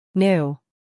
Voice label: "new", English